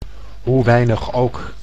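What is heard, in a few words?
"hoe weinig ook", Dutch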